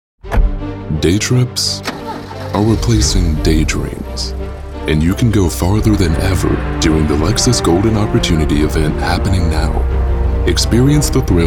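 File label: Commercial, voice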